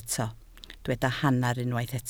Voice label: "hanner", Welsh

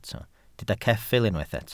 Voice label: "ceffyl", Welsh

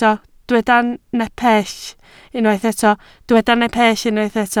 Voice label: "nepell", Welsh

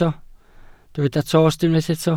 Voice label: "tost", Welsh

